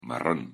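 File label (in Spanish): "marron" Spanish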